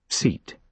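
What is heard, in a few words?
"seat", British English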